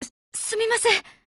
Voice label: LOWMOOD JP